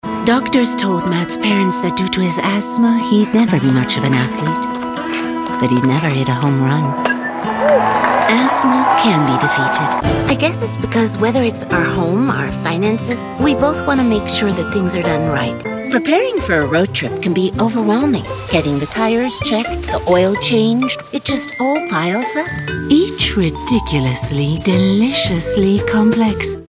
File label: english international commercial, confident